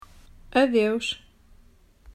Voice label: "Adeus", Portuguese

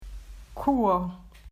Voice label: "Quo", Portuguese

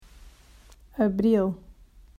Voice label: Abr, Portuguese

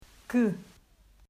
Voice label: "Que", Portuguese

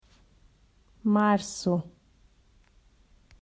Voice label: Mar, Portuguese

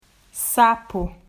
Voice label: "Sapo", Portuguese

